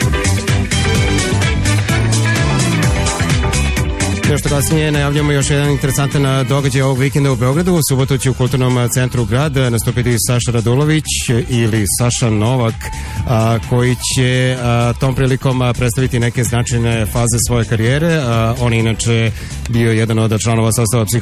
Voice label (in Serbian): Radio najava; Radio Laguna